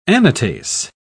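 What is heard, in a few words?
"anatase", English, British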